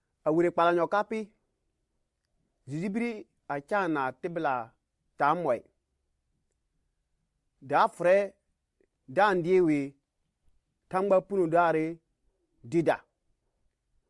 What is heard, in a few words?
Lobiri; Jeju ulfɛrɛ Thu; The Great Commission